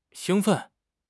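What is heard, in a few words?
"xing1 fen4", Mandarin Chinese; HSK4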